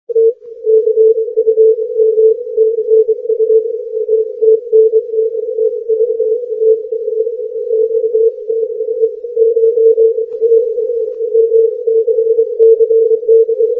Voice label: 80m, compressed MP3 sound files have been recorded at VE6WZ directly from the FT-1000d or IC-756ProII; Croatia coming in from the NE at my evening